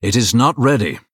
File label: Male Blood Elf ERROR MINION ATTACKED